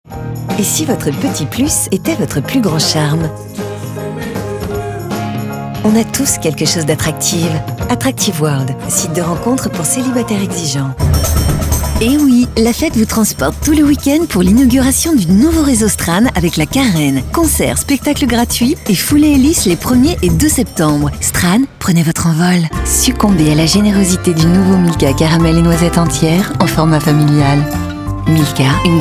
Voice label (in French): medley PUB Radio